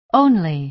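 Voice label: "only", American English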